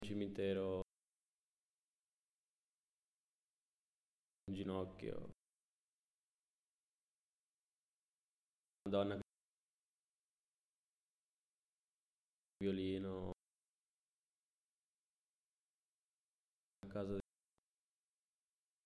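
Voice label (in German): Zusammengefugte Worter Langsam Italienisch, male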